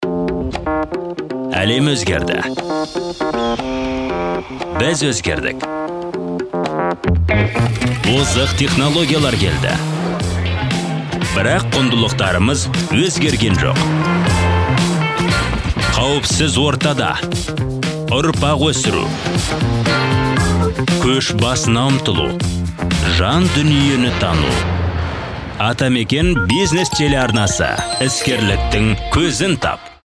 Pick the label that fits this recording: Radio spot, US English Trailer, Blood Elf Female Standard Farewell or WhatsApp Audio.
WhatsApp Audio